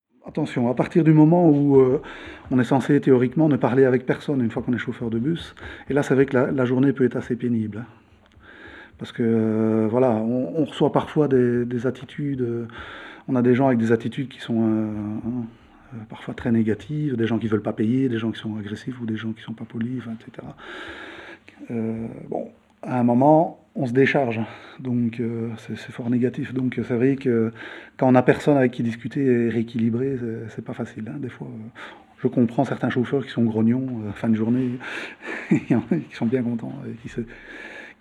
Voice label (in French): bus bonjour